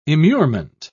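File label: "immurement", British English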